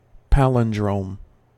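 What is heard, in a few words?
"palindrome", American English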